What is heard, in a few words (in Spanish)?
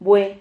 "vue", Silabas